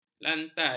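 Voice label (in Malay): "lantai", Malay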